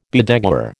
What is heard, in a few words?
"bedeguar", American